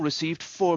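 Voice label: "received four", Scottish English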